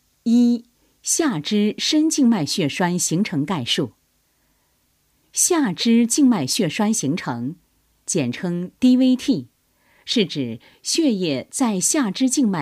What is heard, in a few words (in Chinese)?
女 医疗宣传 医用弹力袜健康教育-稳重, 沉稳大气